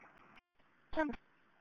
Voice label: Police Sheriff Dispatch

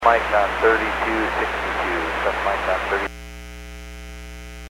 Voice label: Aviation 121.5 Emergency

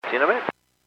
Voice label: Airports 122.7, Radio; KSTE

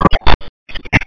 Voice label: Police Dispatch; 155.67 Stevens Point PD

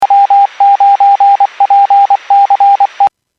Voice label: Skywarn 146.67; Stevens Point

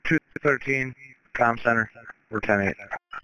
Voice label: Police Dispatch; Police 155.67 Stevens Point PD Dispatch

